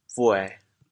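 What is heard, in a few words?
"buê1", Teochew, chaoyang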